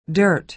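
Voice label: "dirt", American English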